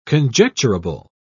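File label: "conjecturable", British English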